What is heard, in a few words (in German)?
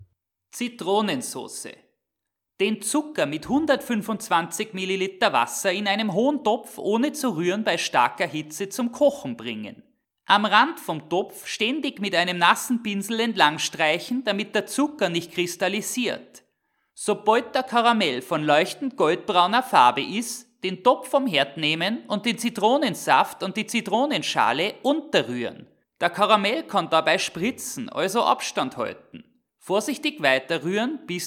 Oesterreicher, Dialekte